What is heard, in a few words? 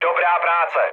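player killed enemy, Czech, mood low; War Thunder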